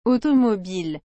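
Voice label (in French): "automobile", French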